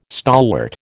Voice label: "stalwart", American